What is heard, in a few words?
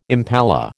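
"impala", American English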